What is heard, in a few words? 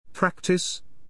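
"practice", English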